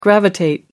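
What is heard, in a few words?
"gravitate", British English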